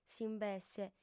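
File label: "s'imbesse", Sardinian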